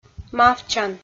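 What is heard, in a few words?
"мавтчан", Komi, female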